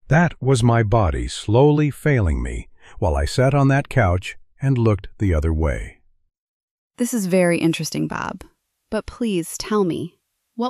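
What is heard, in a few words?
dramatic interview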